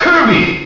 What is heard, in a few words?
Kirby Announcer; SSBM